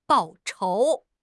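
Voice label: "报仇", Chinese; HSK6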